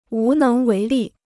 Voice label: "无能为力", Chinese